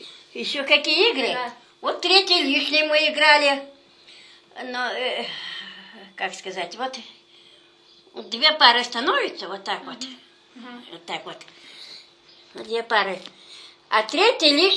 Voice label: middle ob dialect; третий лишний